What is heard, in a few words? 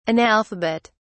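"analphabet", British English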